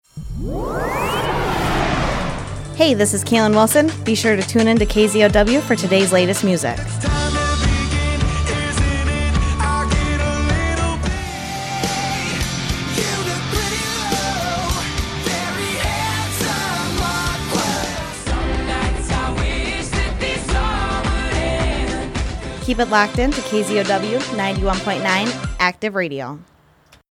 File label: Music Promo